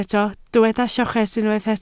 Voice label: "lloches", Welsh